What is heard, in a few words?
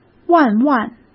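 "万万", Mandarin Chinese; hsk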